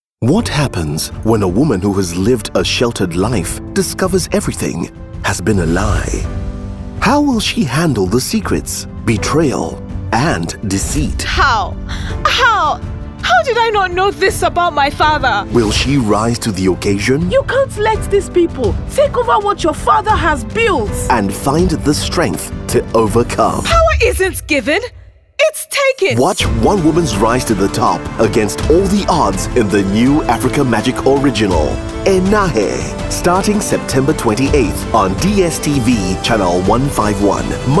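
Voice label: Radio, New Zealand English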